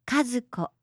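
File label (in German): "Kazuko", japanische Mädchennamen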